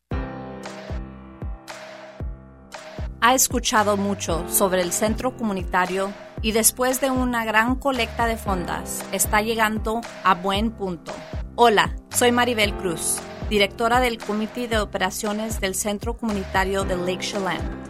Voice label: SPANISH GENERIC